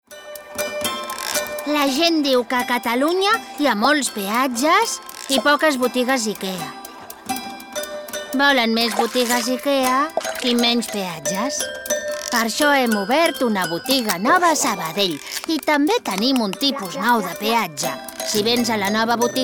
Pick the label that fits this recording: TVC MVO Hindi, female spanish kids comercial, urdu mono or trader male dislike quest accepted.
female spanish kids comercial